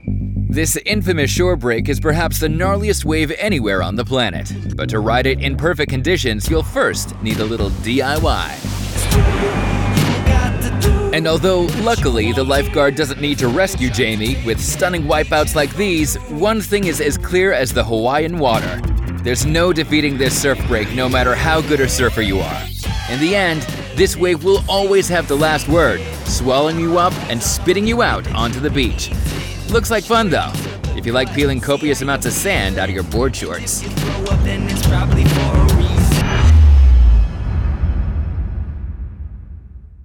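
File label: US English Dynamisch; Fremdtake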